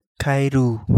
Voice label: Kapingamarangi; cane toad

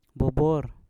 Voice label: "bubwor", Mpur; lalat

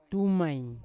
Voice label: "tumain" short, Yawa

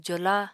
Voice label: "jola", Apatani

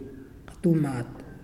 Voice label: Kill, Muyuw; Lae Unitech

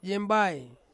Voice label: "yembai" moon, Ansus

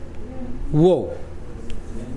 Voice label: dog bark, Mokilese

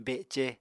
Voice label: "bece", Apatani

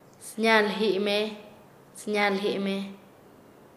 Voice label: "sin marca", Nasa Yuwe; paez

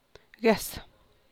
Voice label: "gues", San Lucas Quiaviní Zapotec; guehs

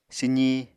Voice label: "swzi", Apatani

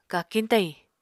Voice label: "kakiñ-tayiñ", Apatani

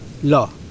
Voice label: "seat of canoe", Mokilese

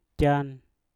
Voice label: "jan", Mpur; bukan

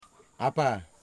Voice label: "brother", Wamut

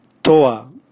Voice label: chicken, Raga

